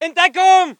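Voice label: voice german infantry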